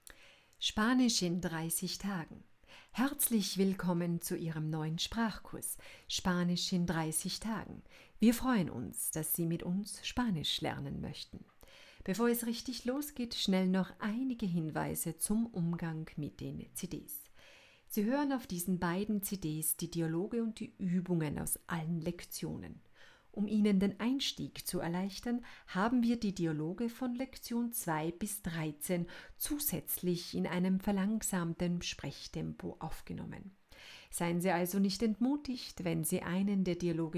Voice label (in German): E-Learningtext